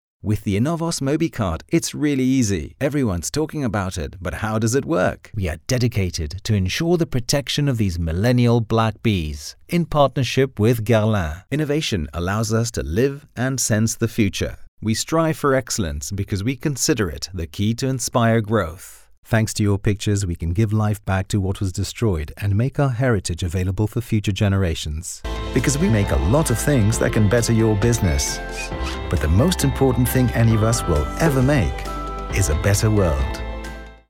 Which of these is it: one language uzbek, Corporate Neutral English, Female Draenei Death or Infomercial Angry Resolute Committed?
Corporate Neutral English